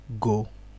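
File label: "go", Nigerian Pidgin, male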